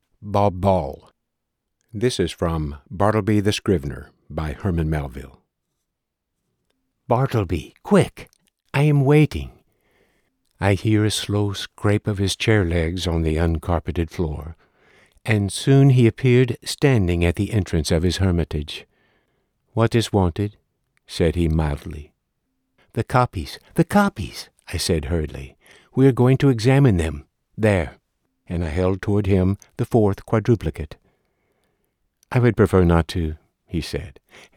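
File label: 1st Person Narration, audiobook narration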